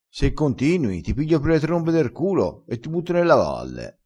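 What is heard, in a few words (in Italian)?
"piglia per le trombe", dialettale, crespinese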